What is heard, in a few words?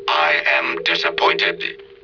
disappointed; The Return of Starbuck